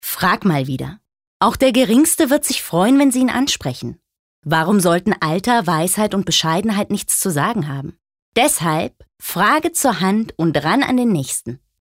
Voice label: Werbung, Freundlich

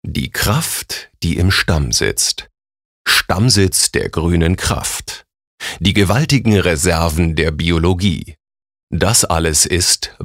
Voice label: Werbung, Kraftvoll

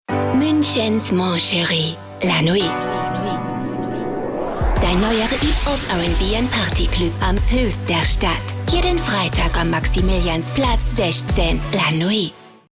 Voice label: Akzent französich

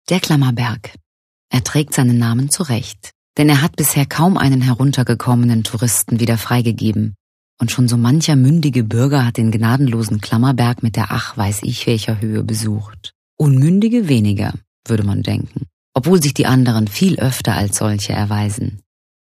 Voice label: Kommentar Neutral

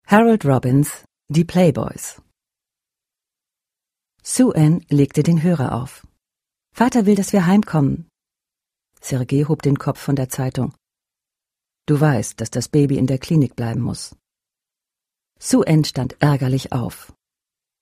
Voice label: Fremdtake Neutral

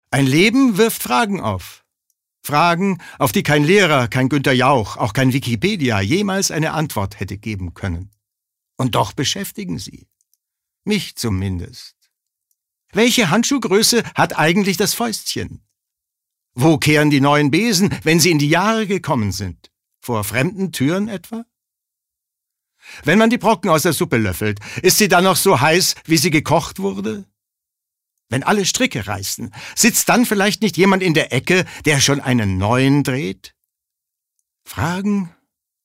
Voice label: Schauspiel Energisch

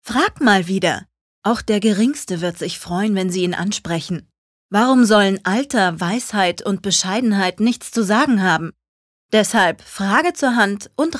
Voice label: Werbung Ueberheblich

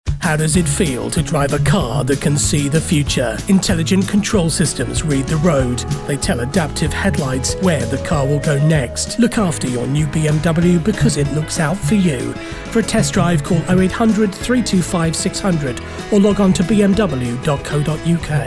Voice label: Eng UK Fremdtake Neutral

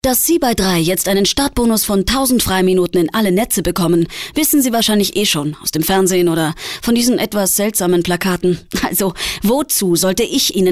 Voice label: Werbung, Dynamisch

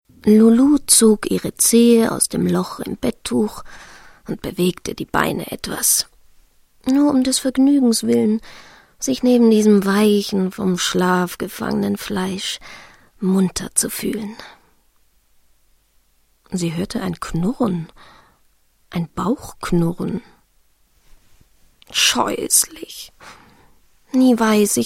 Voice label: Schauspiel Neutral

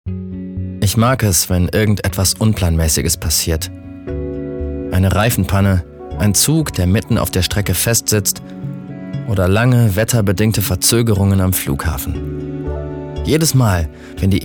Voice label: Neutral

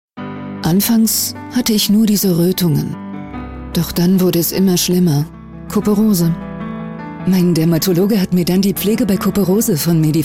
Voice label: Werbung Freundlich

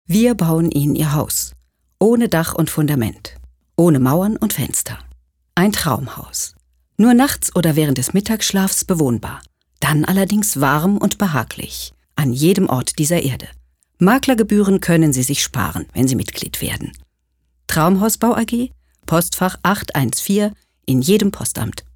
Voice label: Werbung, Neutral

